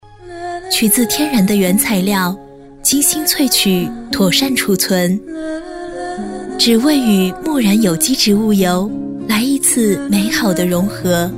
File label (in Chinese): 女 广告 木染手工皂, 国语女声配音; 多风格录音